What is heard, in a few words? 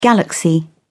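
"galaxy", British English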